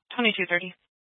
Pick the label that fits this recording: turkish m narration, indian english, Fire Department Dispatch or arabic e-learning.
Fire Department Dispatch